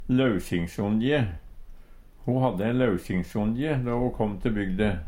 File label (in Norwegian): lausingsonje, Numedalsmål; Ho hadde ein lausingsongje då ho kom te bygde.